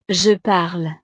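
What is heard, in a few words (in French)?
"je parle", French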